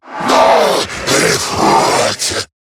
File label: Cover Me, en; Zariman Wraith